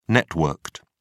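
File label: "networked", British English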